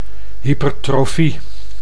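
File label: "hypertrofie", Dutch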